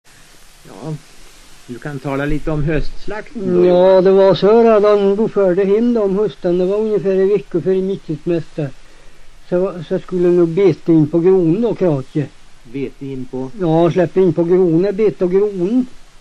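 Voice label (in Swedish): Hede Härjedalen, dialekter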